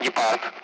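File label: Speech, Russian; Allied Flight; AirDefence; Gepard; DCS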